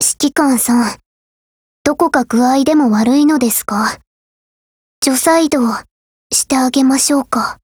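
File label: dialogue, Japanese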